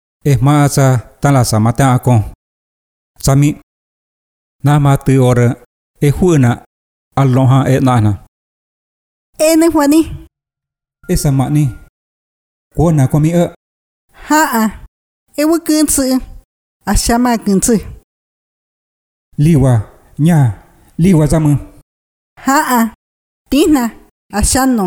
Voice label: Lengua Chinateco; Violencia contra las mujeres; FI Uxpanapa